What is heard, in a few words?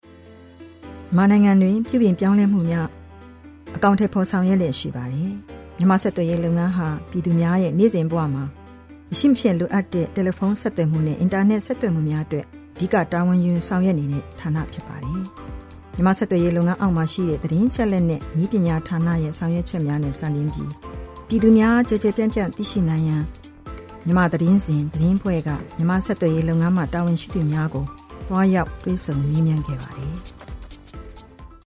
news